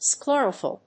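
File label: "Sclerophyll", English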